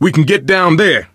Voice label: misc directional, coach, survivor voice